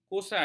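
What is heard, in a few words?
"kusal", Malay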